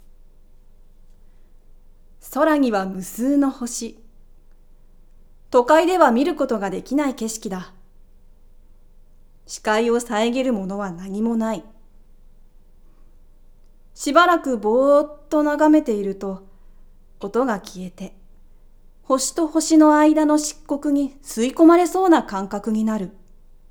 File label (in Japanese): 空には無数の星ナレ